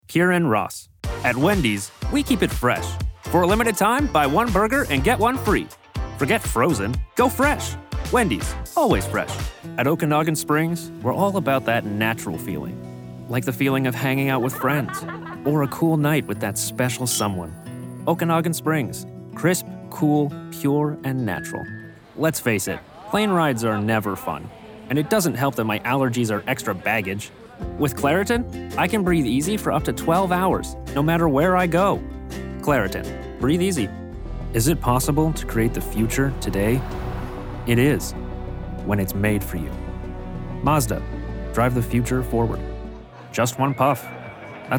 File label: Commercial; voice actor based in Edmonton, Alberta